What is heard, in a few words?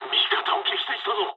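Japanese player killed ally mood low; War Thunder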